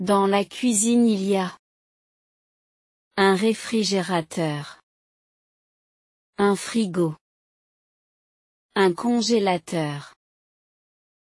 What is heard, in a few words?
french pronunciation home vocabulary